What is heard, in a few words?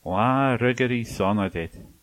birthday, manx